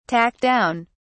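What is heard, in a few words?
"talk down", British English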